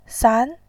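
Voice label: "san", Mandarin Chinese, second tone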